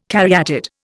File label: "caryatid", Indian